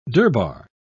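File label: "durbar", British English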